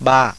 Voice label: Thai

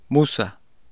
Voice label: "musa", spanish

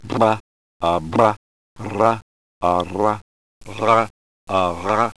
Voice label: trill

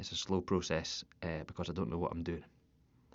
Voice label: scottish

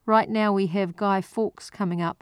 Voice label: New Zealand sentence; transcription exercises; newzealand sounds

